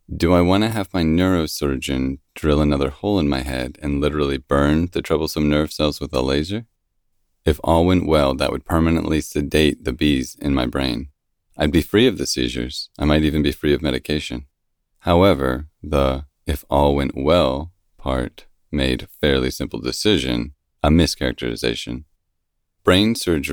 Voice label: Biography Male Straightforward First Person